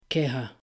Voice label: "queja", Spanish